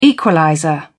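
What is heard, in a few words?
"equalizer", British English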